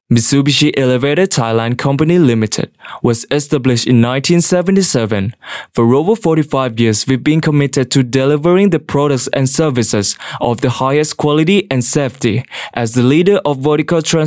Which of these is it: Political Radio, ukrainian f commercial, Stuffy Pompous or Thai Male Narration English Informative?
Thai Male Narration English Informative